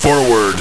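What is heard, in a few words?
"forward", male radio voice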